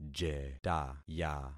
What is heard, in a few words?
"jetaya", concat syllables; Affixing experiment